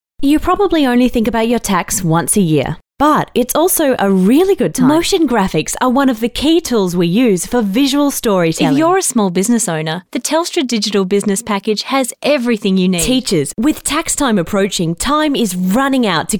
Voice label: Soft Sell